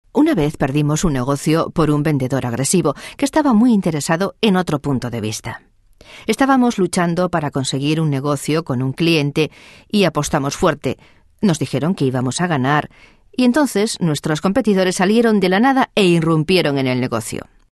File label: Spanish F Infomercial